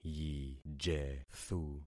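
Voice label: "yijethu", concatenated syllables; Affixing experiment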